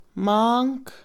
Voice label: hijo, Mixe, Mujer; Parentesco; INALI

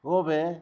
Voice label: "vapor", Zapoteco, Magdalena Ocotlan; Fenómenos; INALI; Haspelmath

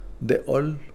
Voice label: cortar, Kakchikel

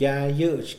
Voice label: "tampoco", Mixe, hombre; INALI

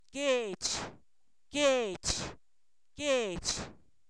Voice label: Zapoteco, san miguel albarradas; pueblo; objetos inanimados

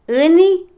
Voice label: Otomí, mujer; dañar, herir; INALI

